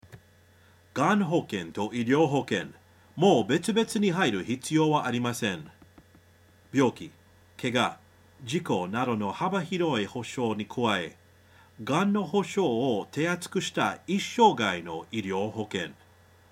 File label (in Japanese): Nihongo